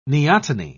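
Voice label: "neoteny", British English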